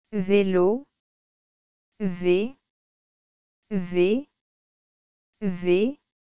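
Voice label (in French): "vélo", French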